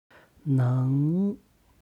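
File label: "neng", Chinese; 能; できる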